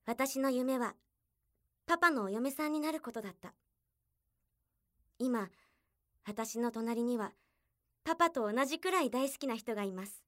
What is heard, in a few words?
narration